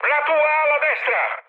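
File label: Italian right wing mood high, speech; War Thunder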